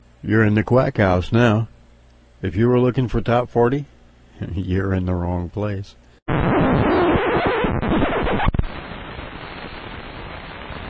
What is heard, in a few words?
STATION ID; QUACKHOUSE